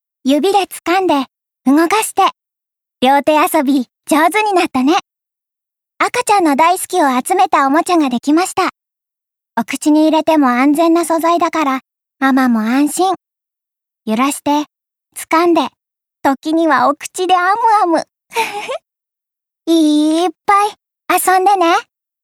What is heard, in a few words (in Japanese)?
少女声CMナレ